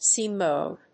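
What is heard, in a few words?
"C-mode", English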